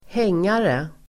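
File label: "hängare", Swedish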